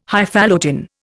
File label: "Highfalutin", Indian